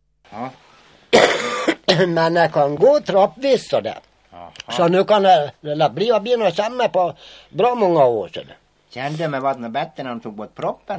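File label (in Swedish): Sundborn Dalarna, dialekter; Folkminnen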